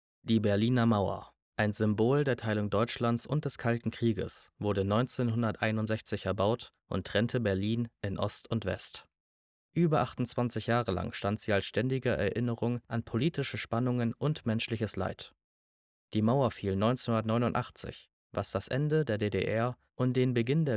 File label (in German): Dokumentation; VoiceOver; Berliner Mauer